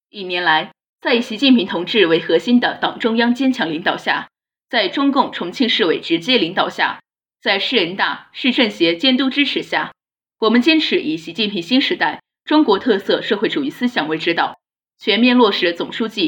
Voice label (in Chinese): 新闻播读